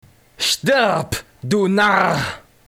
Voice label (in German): Narr; Stirb, du Narr!